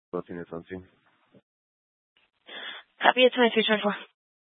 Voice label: Fire Dispatch

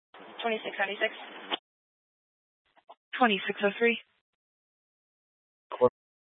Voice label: Sheriff Dispatch, scanner; Mesa County Sheriff Dispatch

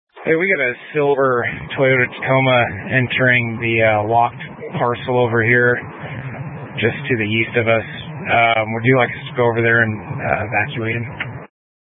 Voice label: Incident Tac, scanner; Incident Tac 1

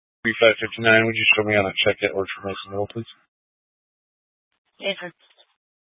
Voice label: Police Dispatch

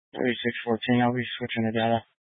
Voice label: Police Department Dispatch, scanner

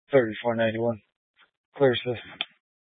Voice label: Police Department Dispatch, scanner

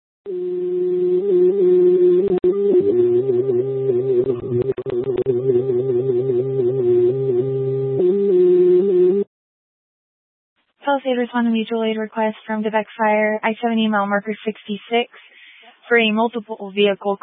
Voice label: Fire Department Dispatch; Grand Junction

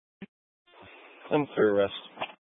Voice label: Mesa County Sheriff Dispatch; scanner

